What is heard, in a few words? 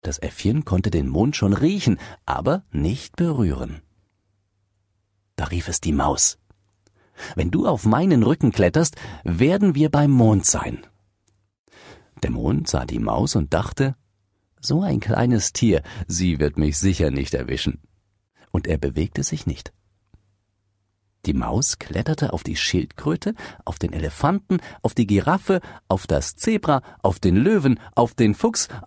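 German, male, character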